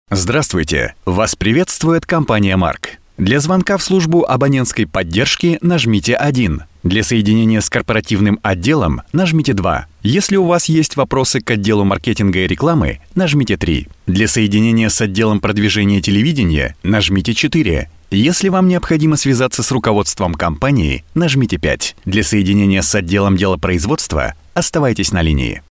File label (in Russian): Avtootvetchik IVR